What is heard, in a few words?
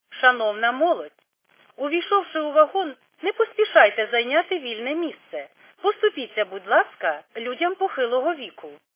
khs st female, subway station